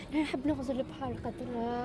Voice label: woman in cafe